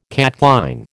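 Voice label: "catchline", American English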